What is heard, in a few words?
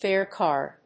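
"fare card", English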